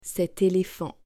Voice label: "cet éléphant", French

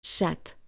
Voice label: "chatte", French

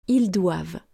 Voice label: "ils doivent", French

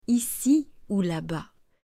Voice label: "ici ou là-bas", French

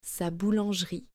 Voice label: "sa boulangerie", French

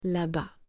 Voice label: "là-bas", French

